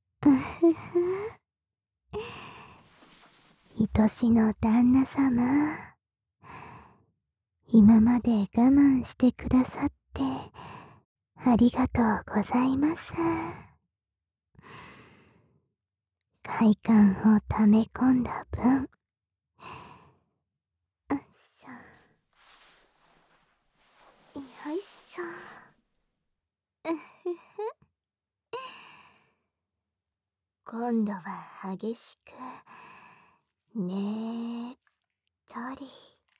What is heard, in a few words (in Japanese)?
夜伽…甘やかし全肯定柔らかな体と甘い声に包まれながらゆったり→激しく本番（囁きブースト 射精音・効果音あり）; 自然の音なし